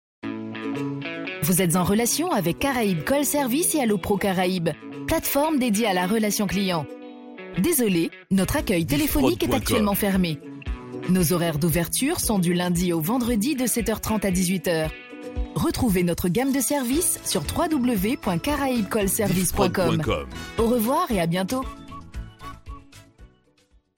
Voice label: Audiotel Fermeture, telephonie svi; Caraibes Call Service

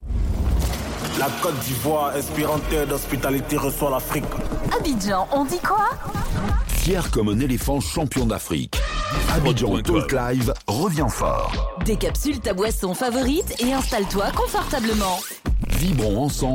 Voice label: Talk Live Intro Podcast; Abidjan

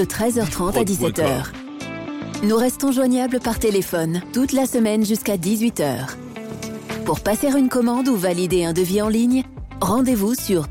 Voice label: Distribution Plomberie Repondeur; MPSB Distribution

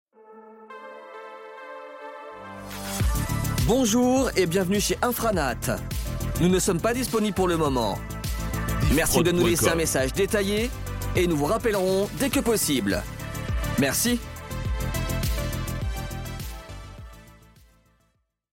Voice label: Repondeur; Infranat

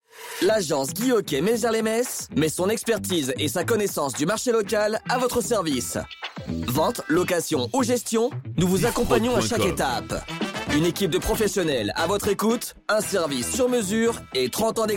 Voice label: Spot Immobilier, publicite; Guy Hoquet Maizieres les Metz

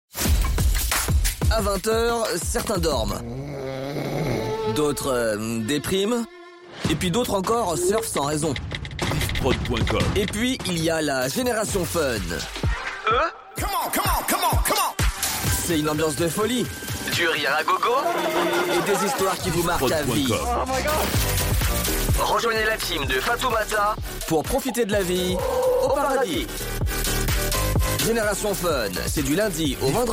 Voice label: Promo Libre Antenne; Generation Fun

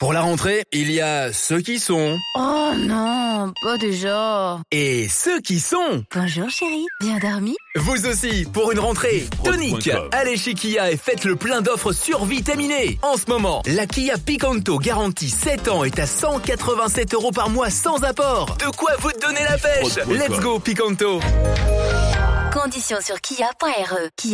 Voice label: Spot Rentree, publicite; Kia Picanto

